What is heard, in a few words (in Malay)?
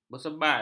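"bersebai", Malay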